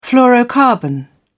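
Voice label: "fluorocarbon", British English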